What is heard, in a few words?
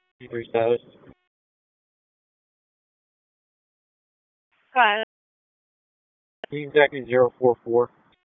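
Raleigh Police Northeast District Dispatch, p25; wake p25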